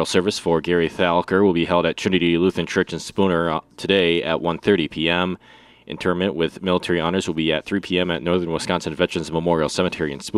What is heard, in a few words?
Funeral Announcements